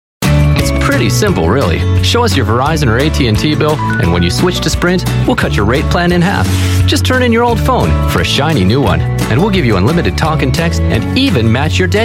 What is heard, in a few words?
US English Commercials